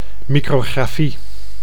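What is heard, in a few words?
"micrografie", Dutch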